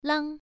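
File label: "lang", Mandarin Chinese, first tone, pronunciation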